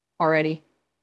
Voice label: Voice Acting es

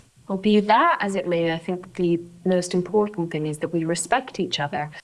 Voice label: Voice Acting es

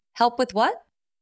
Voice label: Voice Acting es F

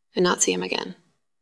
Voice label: VoiceActing es F

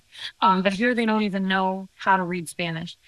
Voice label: Voice Acting, es, F0

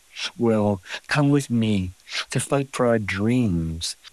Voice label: Voice Acting, es, M

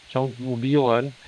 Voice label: Voice Acting, es

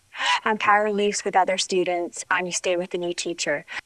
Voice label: Voice Acting, es, F0

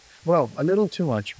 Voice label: Voice Acting, es

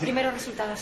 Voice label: Voice Acting es F

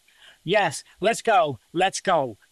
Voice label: Voice Acting es M

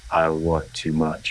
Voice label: Voice Acting, es

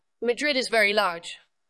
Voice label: VoiceActing es F

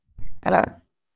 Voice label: VoiceActing es

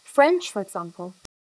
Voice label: Voice Acting es

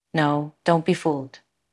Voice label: Voice Acting es

